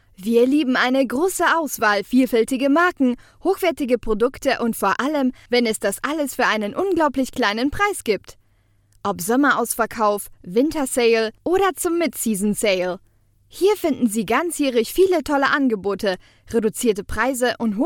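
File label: Polish, German accent; GoLocalise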